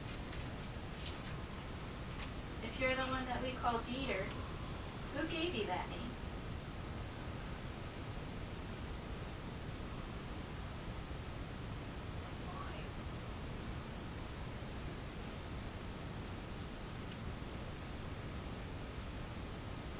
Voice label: EVP; Ricketts Glen State Park; EVP RECORDER